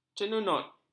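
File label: "cenunut", Malay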